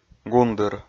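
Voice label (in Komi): "гундыр", Komi, male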